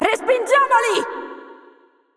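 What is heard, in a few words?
voice over, Italian; Irelia assist; bloom minigame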